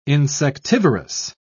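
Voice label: "insectivorous", British English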